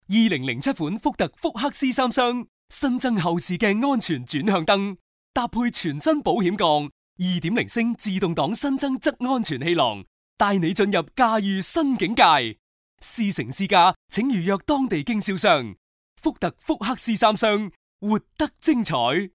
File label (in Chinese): chinese cantonese commercial